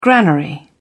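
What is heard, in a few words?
"granary", English, British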